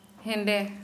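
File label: Santali, female, black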